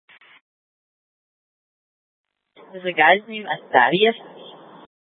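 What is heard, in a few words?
police dispatch radio, scanner; TOPAZ Regional Wrlss Cooperative (TRWC) - Mesa Simulcast; Mesa PD Dptmnt; 1 Fiesta Dispatch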